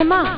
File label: "comeon", Amiga Soundtracker sample